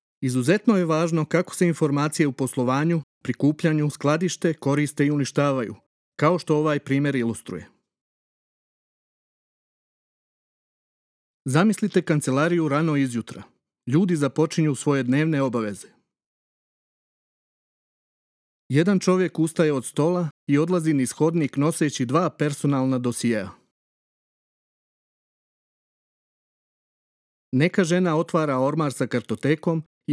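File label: serbian male narration voice; voice demos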